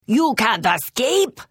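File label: Thief, Khajiit female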